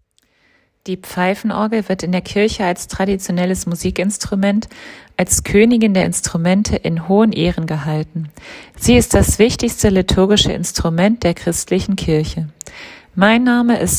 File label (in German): Audioguide Orgel